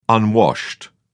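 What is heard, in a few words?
"unwashed", British English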